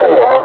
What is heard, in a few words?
"four", suppressor; Half Life Alyx; combine